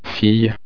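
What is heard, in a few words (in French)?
"fille", French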